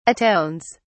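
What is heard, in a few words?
"atones", English, uk British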